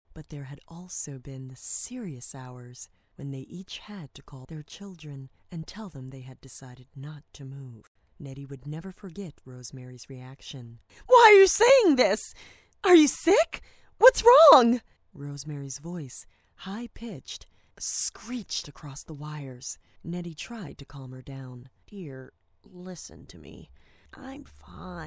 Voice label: English American audiobooks